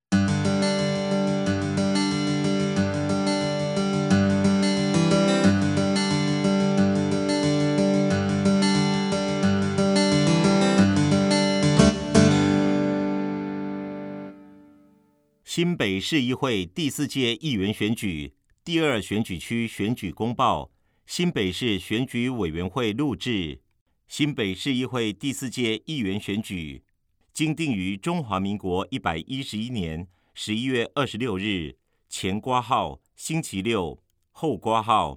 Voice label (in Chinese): 國語; 新北市; 有聲公報; 市議員